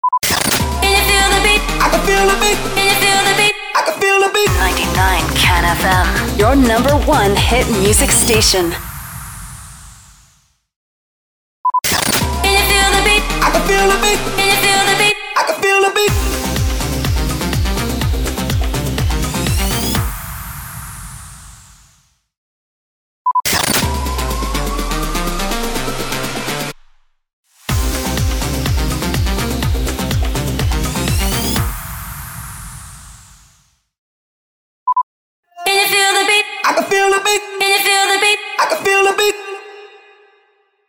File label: SWEEPER